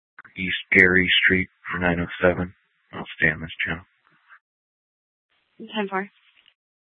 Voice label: PD Dispatch, scanner; Superstition; Mesa PD